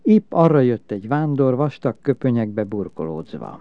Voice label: narrative, hungarian